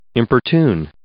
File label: "importune", English